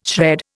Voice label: "shred", Indian